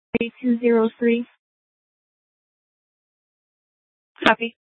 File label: Superstition Dispatch, Mesa PD; TOPAZ Regional Wireless Cooperative (TRWC) - Mesa Simulcast; 4 Superstition Dispatch